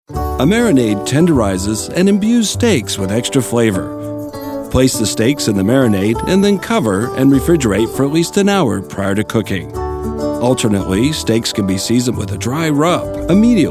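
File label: narration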